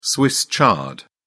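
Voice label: "swiss chard", British English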